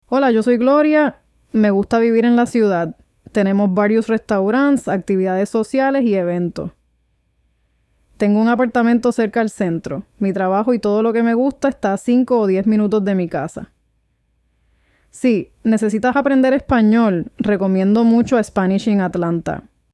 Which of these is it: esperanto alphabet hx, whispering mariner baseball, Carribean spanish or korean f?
Carribean spanish